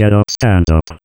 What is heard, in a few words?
GET UP, Funky Vocals; USB Soundscan vol.18; AKAI; COMPUTER